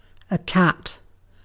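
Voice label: "cat", British English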